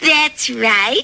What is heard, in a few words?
"that's right" female